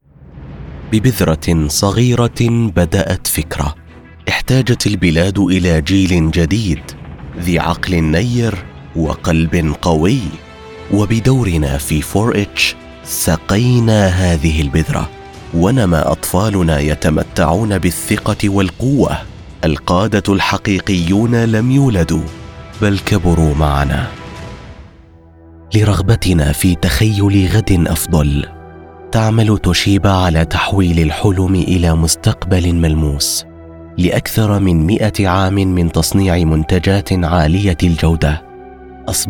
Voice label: Corporate Standard Arabic

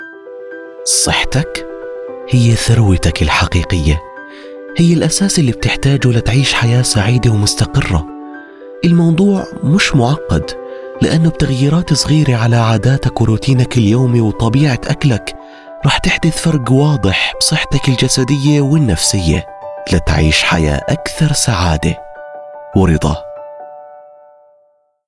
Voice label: Health Explainer Warm Caring Jordanian Arabic

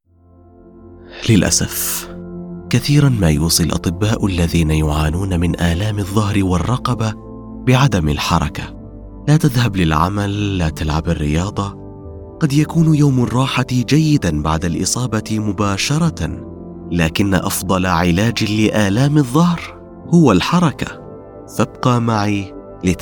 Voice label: eLearning Medical Standard Arabic; Back Pain

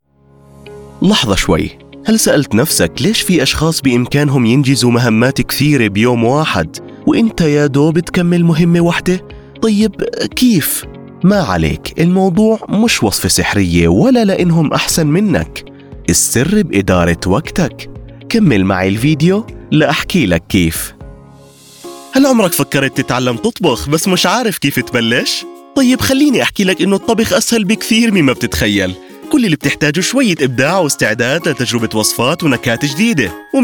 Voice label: Explainer Jordanian Arabic

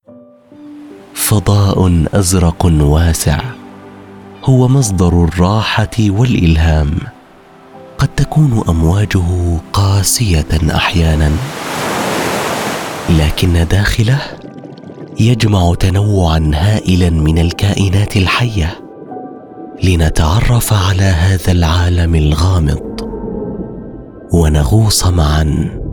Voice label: Documentary Dreamy Calming Smooth Standard Arabic; Seas

